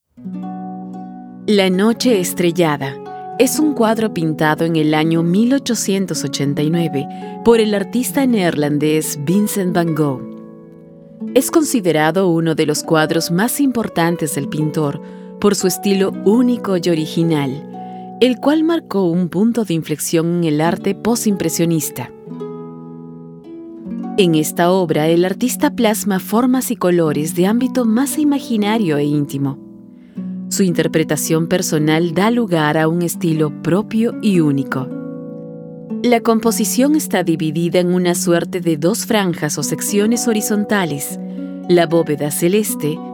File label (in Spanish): audioguia